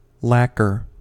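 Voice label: "lacquer", American English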